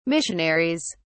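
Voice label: "missionaries", British English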